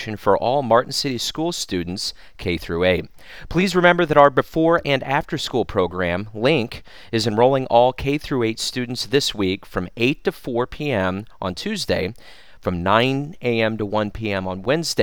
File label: phone message, voiceovers